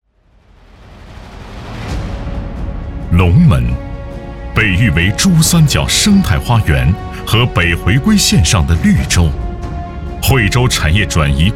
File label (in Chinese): 男 招商片 大气激昂; 《龙门产业园汇报片》